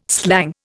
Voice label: "slang", Indian